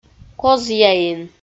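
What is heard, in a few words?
"козъяин" Komi, female; audio dict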